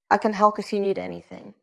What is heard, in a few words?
Voice Acting es F